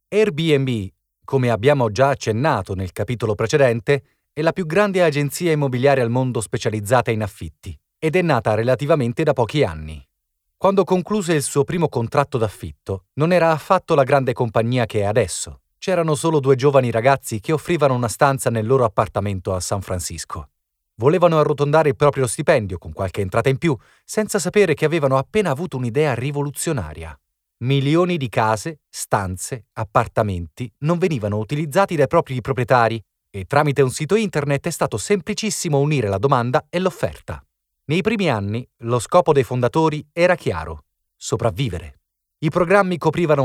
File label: italian narration